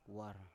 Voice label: "war" eight, Biak